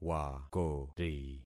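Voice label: "wakori", concatenated syllables; Affixing experiment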